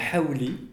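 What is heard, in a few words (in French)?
"mouton" prononciation, French; Radio Berenkuil; La Cage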